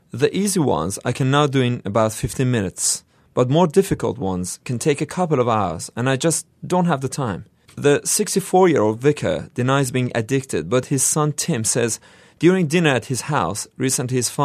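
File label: Farsi English; Farsi, Middle Eastern, Male, 20s-30s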